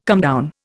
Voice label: "comedown", Indian